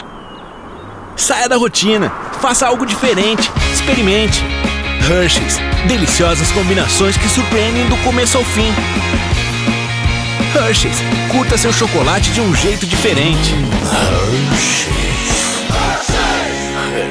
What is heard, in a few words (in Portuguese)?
Jovem Animado; Hersheys BungeeJump